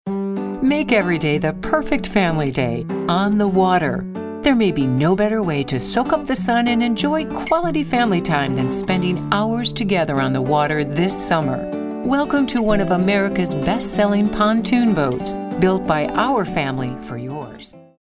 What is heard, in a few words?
friendly